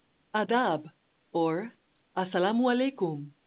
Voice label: Urdu, hello